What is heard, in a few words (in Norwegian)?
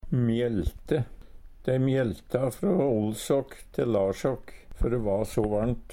"mjelte", Numedalsmål; Verb